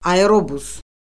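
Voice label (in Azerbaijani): "aerobus", Azerbaijani; Orfoqrafiya Lüğəti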